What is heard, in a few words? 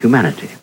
LoFi Words, LoFi Vocals